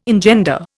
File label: "engender", Indian